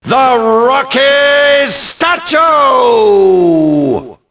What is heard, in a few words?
announce, ENGLISH; Rocky; XBOX